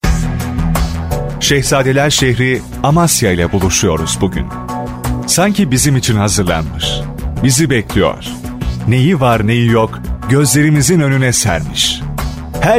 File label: Turkish M; Male